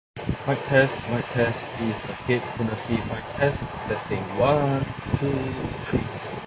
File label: Noisy; Technics EAH AZ70W